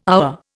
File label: "our", Indian